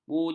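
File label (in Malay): "bujang", Malay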